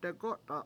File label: "degO7ta7" broken, Washo